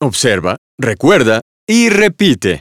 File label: Spanish dialogue; Birthday Party Bash; Wii; CopyCat Expressions